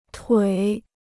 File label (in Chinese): "腿", Chinese